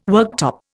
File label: "worktop", Indian